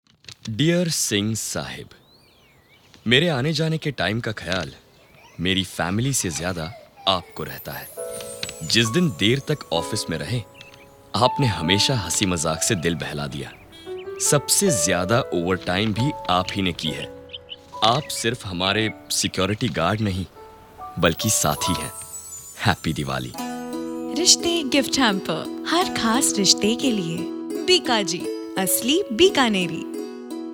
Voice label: Commercial